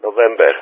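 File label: "November", spoken; Ka-50; Alphabet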